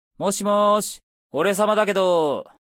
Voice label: Phone; Mammon